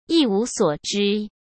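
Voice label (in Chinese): "一无所知", Mandarin Chinese; chinese flashcards; hsk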